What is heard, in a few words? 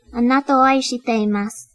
"I Love You", Japanese